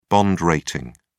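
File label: "bond rating", British English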